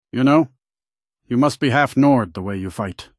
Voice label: male commoner accented; Skyrim Special Edition; Windhelm Dialogue Expansion

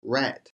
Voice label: "rat", English

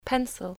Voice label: "pencil", English spelling

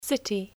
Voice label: "city", English

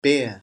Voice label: "bear", English spelling

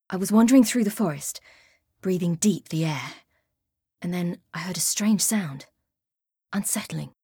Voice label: Female; Skyrim Special Edition; Dawnguard Beleval

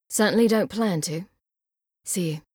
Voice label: Female; Skyrim Special Edition; Dawnguard Beleval

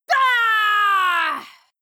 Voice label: Female; Skyrim Special Edition; Dawnguard Beleval

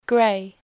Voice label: "grey", English

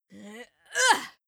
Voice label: Female; Skyrim Special Edition; Dawnguard Beleval

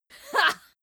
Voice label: Female; Skyrim Special Edition; Dawnguard Beleval

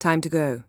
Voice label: female

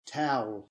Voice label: "towel", English

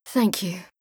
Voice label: Female; Skyrim Special Edition; Dawnguard Beleval

